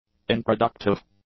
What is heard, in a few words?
"introductive", english; adjectives